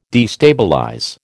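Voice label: "destabilize", American English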